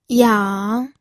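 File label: "yang3", Mandarin Chinese pinyin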